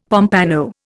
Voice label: "pompano", Indian; Dictionary

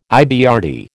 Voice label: "IBRD", American

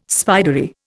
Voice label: "spidery", Indian

